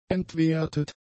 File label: "entwertet", German; adjectives